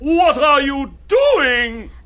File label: "what are you doing", british bloke; questions